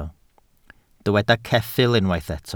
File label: "ceffyl", Welsh